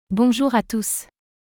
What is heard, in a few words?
French Greetings everyone